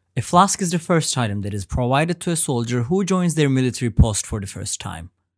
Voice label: english